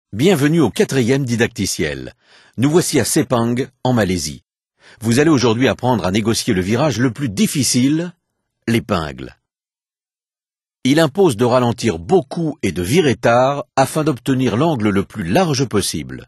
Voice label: hairpin french, speech; MotoGP 3